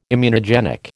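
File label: "immunogenic", American English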